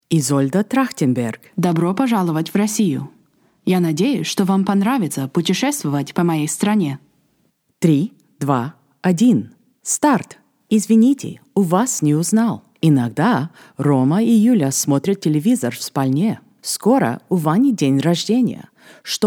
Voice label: Russian Language